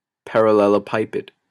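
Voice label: "parallelepiped", Canadian English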